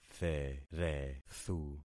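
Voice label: "therethu", concatenated syllables; Affixing experiment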